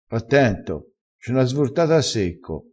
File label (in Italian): "svortata", vocabolario dialettale